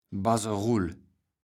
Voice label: "Baserull", kaevels platt

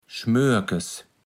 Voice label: "Schmörkes", platt

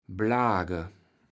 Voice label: "Blage", kaevels platt

